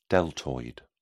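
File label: "deltoid", British English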